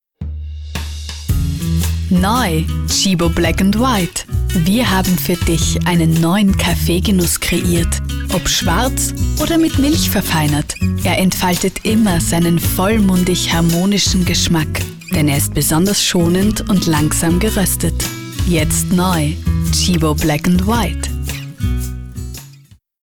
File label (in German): Frau OFF